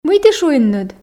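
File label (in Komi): мый ті шуинныд, Komi, female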